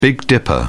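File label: "big dipper", English, British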